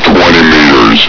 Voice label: "twenty meters", Combine soldier; hl2